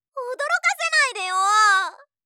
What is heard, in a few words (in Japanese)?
驚かせないでよ！, ASMR, ネガティブ